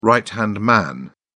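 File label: "right-hand man", British English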